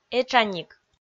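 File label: "этшаник", Komi, female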